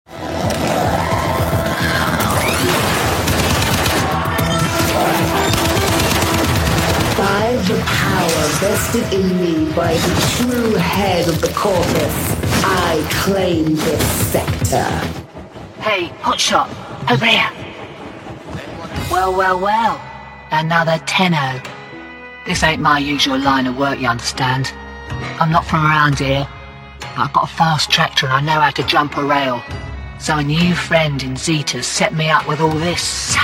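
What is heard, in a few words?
video game, Warm Authoritative British Voice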